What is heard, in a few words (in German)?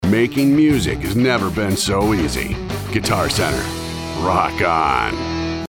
Eng US Cool; Fremdtake